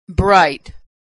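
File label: "bright", American English; vowel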